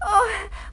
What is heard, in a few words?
"oh" frustrated; Voice